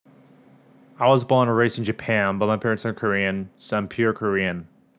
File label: Im pure korean